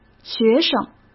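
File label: "学生", Mandarin Chinese; hsk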